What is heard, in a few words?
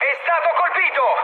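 Italian aircraft destroyed mood high, speech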